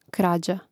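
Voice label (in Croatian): "krada" Croatian; Mrežnik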